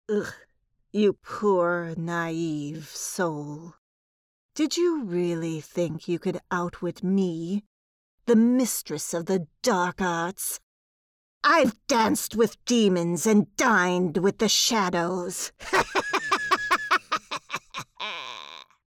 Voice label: character villainess

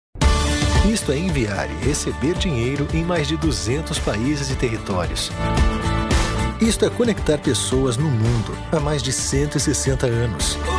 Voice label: international infomercial

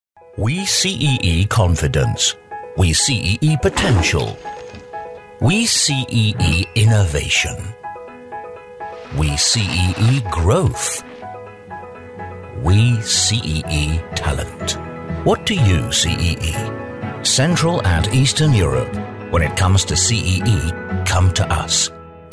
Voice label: Commercial TV Internet

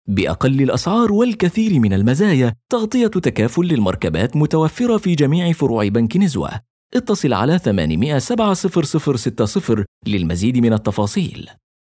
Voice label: Radio AD; Nizwa Bank

